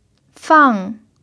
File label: "fang4", chinese